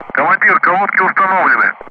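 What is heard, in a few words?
Speech Sound Russian Common Ground Crew Messages; DCS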